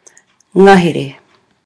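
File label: "ngahere", Māori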